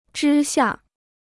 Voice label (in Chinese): "之下", Chinese